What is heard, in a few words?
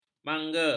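"mangga", Malay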